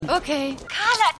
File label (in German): Krankenschwester Anruferin; Scrubs